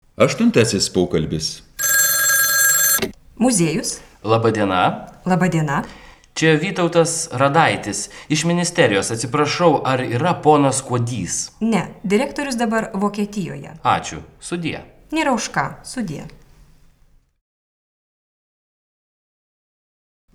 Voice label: Dialog; FF:BA103L Litevština. Jazykový kurs B1: praktikum